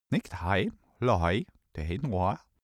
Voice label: male gibberish scandinavian

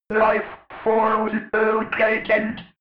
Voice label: robot voice lifeforms located fx

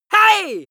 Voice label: angry "hey" male

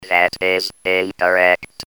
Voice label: "incorrect", voices

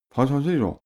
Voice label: male talk neutral

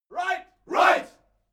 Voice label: military shout english "right"

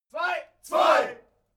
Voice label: military shout german two

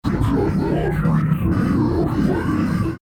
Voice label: creature dark speech

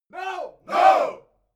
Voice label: military shout english "no"

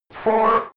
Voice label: robot voice four fx

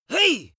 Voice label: angry warning hey male

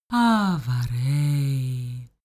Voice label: female talk elfish

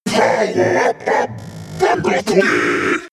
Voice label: monster glitch talk; kreaturen

